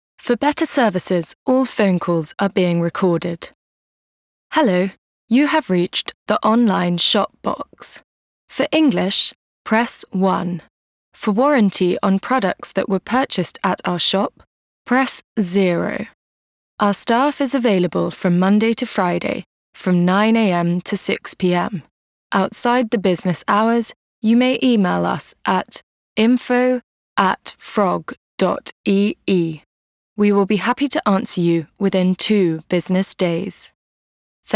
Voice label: woman british